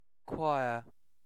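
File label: "choir", British English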